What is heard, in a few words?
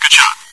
good shot, bot; Source Engine; cstrike